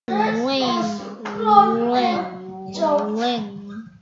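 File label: "ring", spoken word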